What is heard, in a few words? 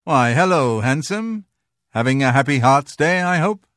Holiday Hello Breton Male; Unused